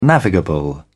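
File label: "navigable", British English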